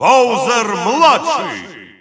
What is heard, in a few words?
Russian Announcer; Bowser Jr.; SSBU